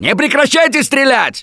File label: battlecry tank, russian; Gambler